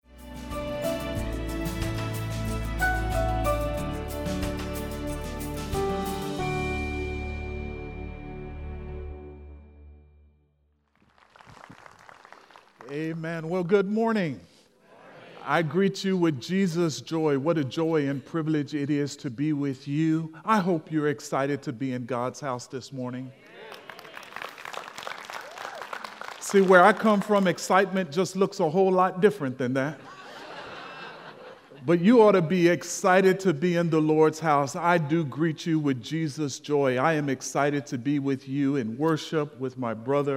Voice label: English Sermon